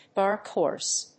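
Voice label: "dark horse", English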